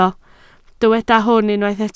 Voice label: "hwn", Welsh

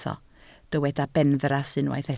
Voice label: "benfras", Welsh

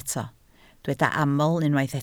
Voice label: "aml", Welsh

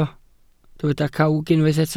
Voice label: "cawg", Welsh

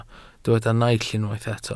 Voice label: "naill", welsh

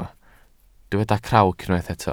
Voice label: "crawc", Welsh

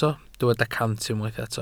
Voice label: "cant", Welsh

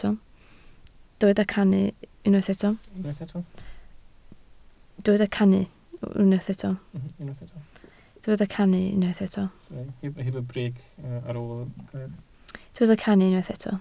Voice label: "cannu", Welsh

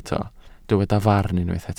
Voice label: "farn", welsh

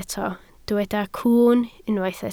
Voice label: "cwwn", Welsh

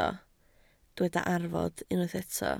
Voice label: "arfod", Welsh

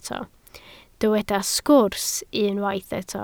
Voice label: "sgwrs", Welsh

